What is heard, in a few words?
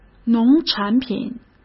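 "农产品", Mandarin Chinese; hsk; chinese-flashcards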